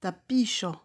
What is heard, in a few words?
"tapisxo", esperanto; vortoj